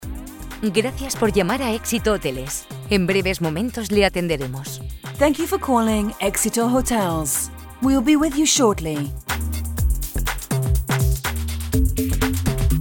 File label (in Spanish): mensaje bienvenida centralita locutoras voiceovers phone messages